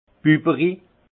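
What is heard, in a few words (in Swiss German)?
"bubberi", alsace Haut-Rhin; vin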